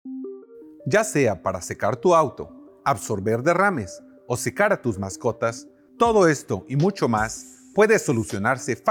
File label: Soaker Spanish